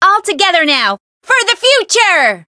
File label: variable chant; renri